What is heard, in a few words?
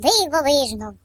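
"Amazing", British; hedgewars; Default uk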